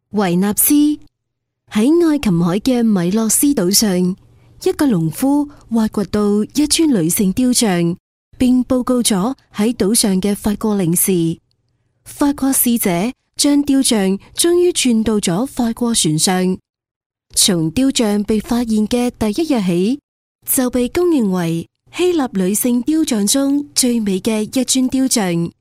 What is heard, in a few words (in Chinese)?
粤女 粤语解说; 罗浮宫